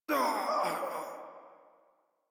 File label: Death Modular Corpus Male; Dialog; Barks; Battle; Warframe